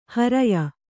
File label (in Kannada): "ಹರೆಯ", Kannada